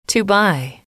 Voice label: "to buy", English